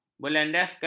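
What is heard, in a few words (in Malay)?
"berlandaskan", Malay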